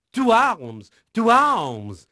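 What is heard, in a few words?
French male, voice; Pirates Of The Caribbean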